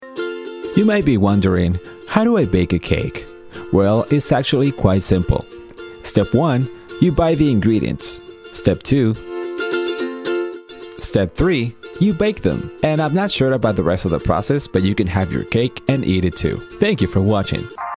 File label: English USA